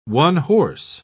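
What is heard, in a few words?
"one-horse", English, British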